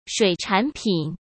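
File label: "水产品", Mandarin Chinese; chinese flashcards; hsk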